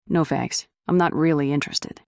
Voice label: fem; REM-Freelancer; REM-Mod